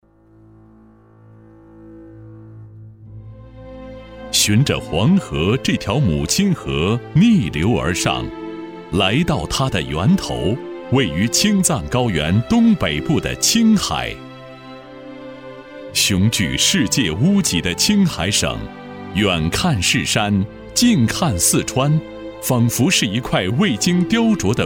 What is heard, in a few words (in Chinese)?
男, 专题, 金融扶贫